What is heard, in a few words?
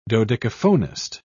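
"dodecaphonist", British English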